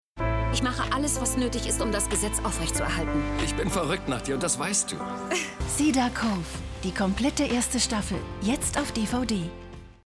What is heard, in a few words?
Trailer; Cedar Cove